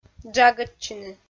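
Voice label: "джагӧдчыны", Komi, female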